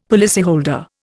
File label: "policyholder", Indian; Dictionary